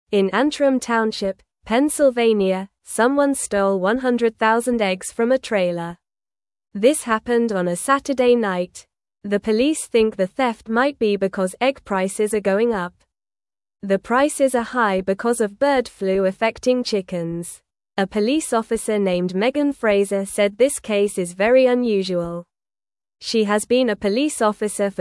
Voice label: English news reading, lower intermediate, normal; Egg Thief Steals 100000 Eggs in Pennsylvania; English Newsroom